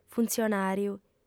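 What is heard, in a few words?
"funtzionàriu", female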